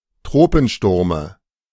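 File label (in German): "Tropensturme", German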